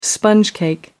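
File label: "sponge cake", British English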